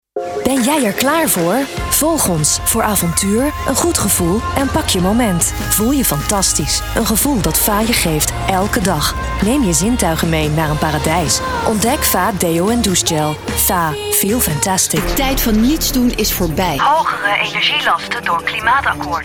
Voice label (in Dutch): Nederlandse voice over stemacteur vrouw